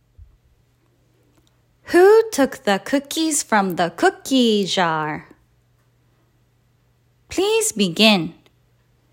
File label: Starlight speech, Preschool